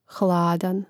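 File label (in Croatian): "hladan", hrvatski; mreznik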